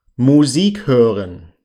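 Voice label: "musik hoeren", German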